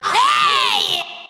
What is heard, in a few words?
"hey", voice